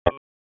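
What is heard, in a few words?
Sheriff's Office Dispatch 1, p25; wake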